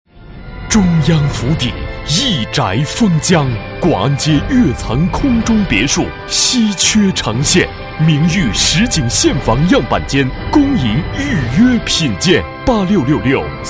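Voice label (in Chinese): 广告地产辽阔大气; 中央府邸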